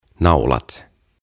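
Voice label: "Naulat", Finnish; Nails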